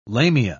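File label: "lamia", British English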